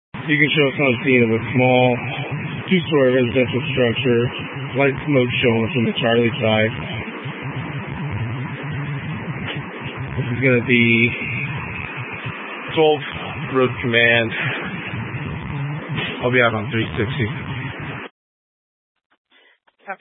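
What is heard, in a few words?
Incident Tactical 1, Scanner audio recording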